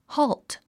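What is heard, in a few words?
"halt", English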